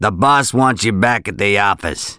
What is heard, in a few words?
Run business, Male, English; gangsters